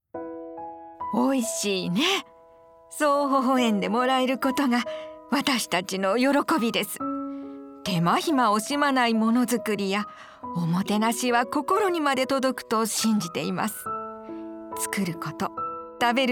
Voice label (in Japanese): おばあちゃん